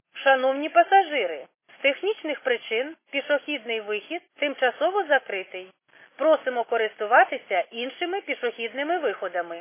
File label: khs st female, subway station